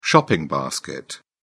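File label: "shopping basket", British English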